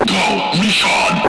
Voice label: lock n load, radio; csof